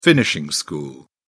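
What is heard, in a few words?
"finishing school", British English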